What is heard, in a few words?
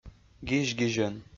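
"гизь-гизьӧн", Komi-Zyrian, male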